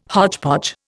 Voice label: "hodgepodge", Indian